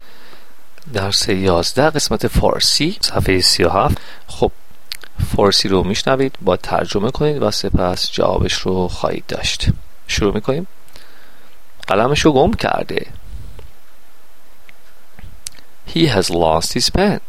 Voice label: Farsi practice page